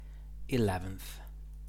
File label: "eleventh", English